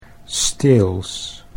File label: "steels", Dutch